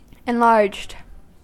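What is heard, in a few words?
"enlarged", US English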